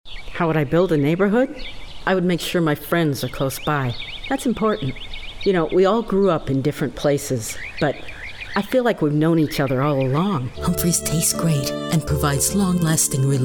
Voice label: Commercials